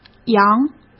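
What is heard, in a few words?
"羊", Mandarin Chinese; chinese flashcards; hsk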